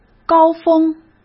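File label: "高峰", Mandarin Chinese; chinese-flashcards; hsk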